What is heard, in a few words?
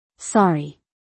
"Sorry", English; Basic Greetings and Courtesy